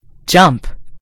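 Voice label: "jump", US English; gcompris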